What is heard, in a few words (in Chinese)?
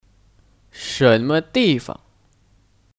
"什么地方", Shénme dìfang